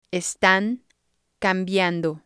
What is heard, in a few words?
"estan cambiando", spanish